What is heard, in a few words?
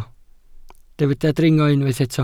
"dringo", Welsh